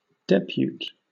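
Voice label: "depute", British English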